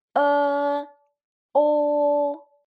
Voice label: "eo", Chinese

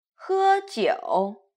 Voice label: "hejiu", Chinese

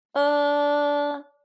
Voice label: "e", Chinese

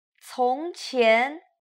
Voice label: "congqian", Chinese

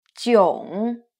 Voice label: "jiong", Chinese

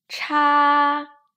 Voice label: "cha", Chinese

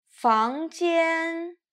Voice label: "fangjian", Chinese

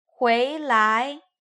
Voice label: "huilai", Chinese

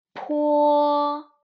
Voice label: "p", Chinese

